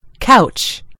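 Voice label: "couch", American English; gcompris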